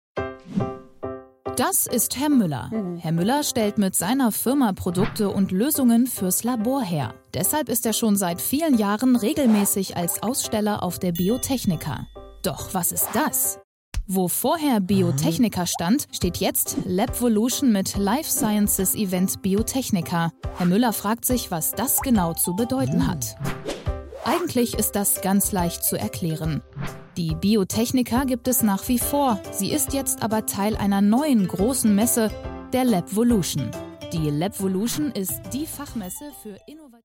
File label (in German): Erklaerfilm